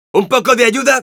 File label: help me es; Scout; "¿Una ayudita?"